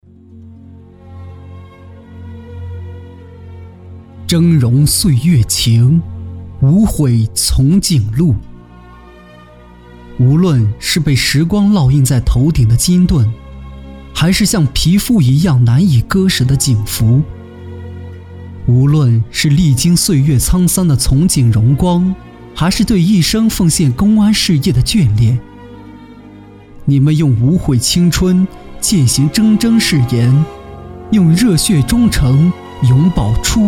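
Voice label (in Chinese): 党政宣传自然有感情; 峥嵘岁月情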